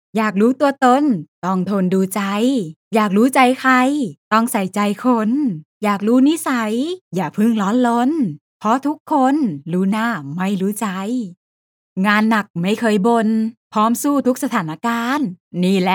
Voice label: thai commercial